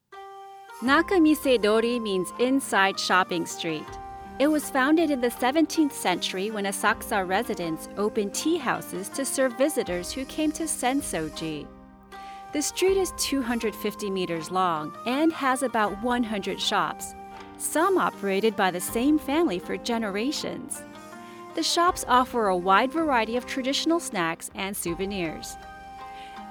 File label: Audio guide sample